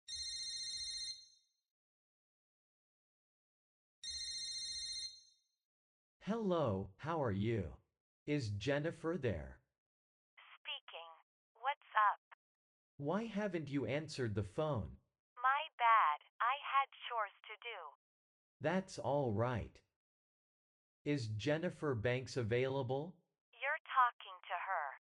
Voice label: Phone call practice; investigating audio production